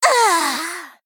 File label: Female Blood Elf Death